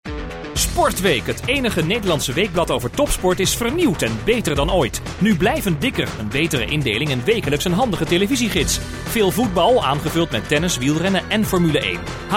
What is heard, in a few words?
male dutch voice over talent upbeat